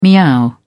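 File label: "meow", British English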